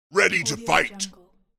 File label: monster says ready to fight